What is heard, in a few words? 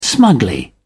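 "smugly", British English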